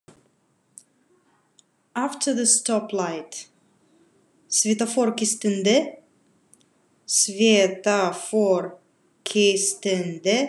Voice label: Khakas After the stoplight